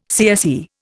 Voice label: "CSE", Indian